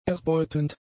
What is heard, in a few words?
"erbeutend", German; adjectives